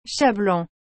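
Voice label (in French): "chat blanc", French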